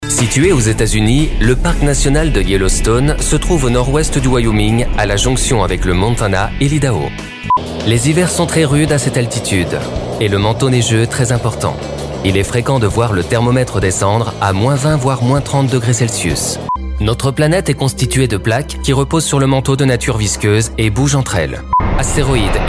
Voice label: french documentaries